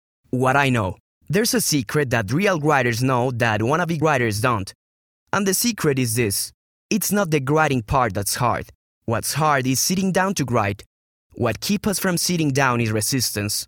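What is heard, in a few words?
Spanish, English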